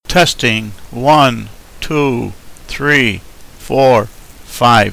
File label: testing, male English